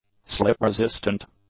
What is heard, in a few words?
"slip-resistant", English; adjectives